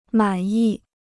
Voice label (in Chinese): "满意", Chinese